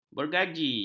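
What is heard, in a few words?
"bergaji", Malay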